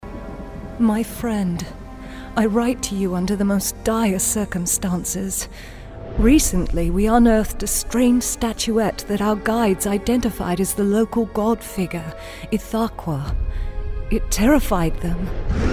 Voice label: UK